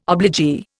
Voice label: "obligee", Indian